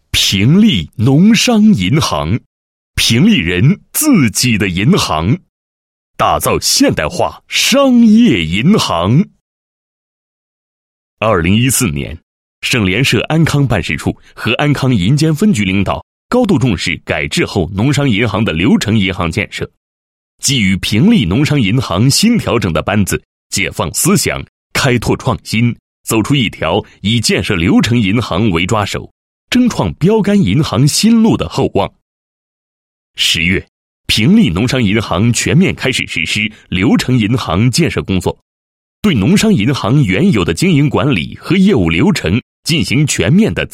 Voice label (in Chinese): 男 企业 银行; 平利人自己的银行